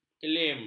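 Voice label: "kelim", Malay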